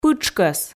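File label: "пытшкӧс", Komi, female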